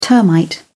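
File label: "termite", British English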